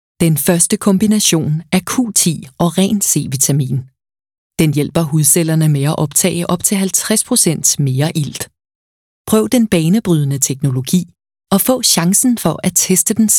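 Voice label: Danish explainer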